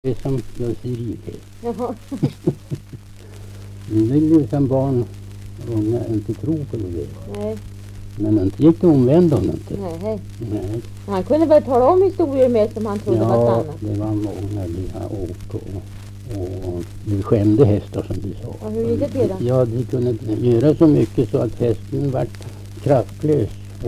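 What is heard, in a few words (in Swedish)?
Kumla Närke, dialekter, Svenska sagor; Folkminnen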